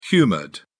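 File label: "humoured", English, British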